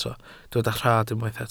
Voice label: "rhad", Welsh